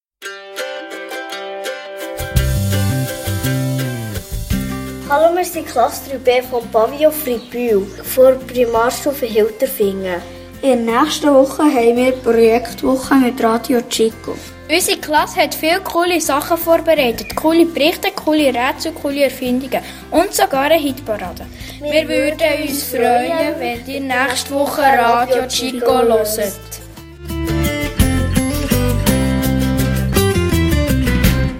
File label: Jingle; Oberhofen